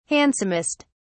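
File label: "handsomest", British English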